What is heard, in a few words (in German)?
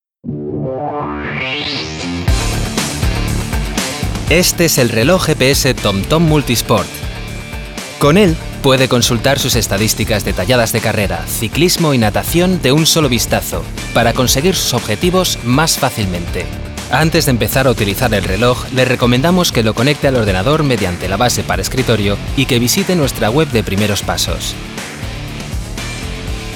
spanisch male erklaervideo gps sportuhr; tomtom